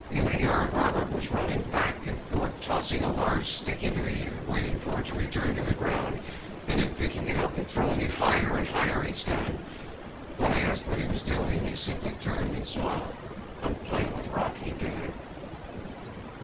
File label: male noisy